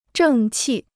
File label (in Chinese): "正气", Chinese